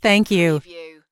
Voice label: US female phone prompt Thank You; lynne publishing

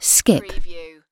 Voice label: UK female "skip"; lynne publishing

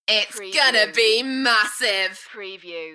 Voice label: UK female "Its gonna be massive" Enthusiastic; beanstalk audio

